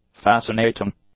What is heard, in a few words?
"fascinating", english; adjectives